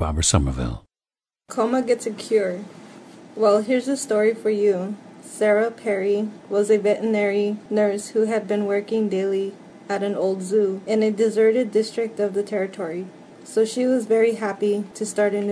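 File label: california, International Dialects of English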